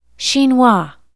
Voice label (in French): chinois